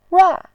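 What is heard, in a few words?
"rua", Mandarin Chinese, fourth tone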